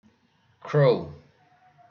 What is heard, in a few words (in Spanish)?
cuervo, ingles